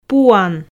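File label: "пуан", Komi, female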